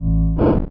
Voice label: Blueface Confused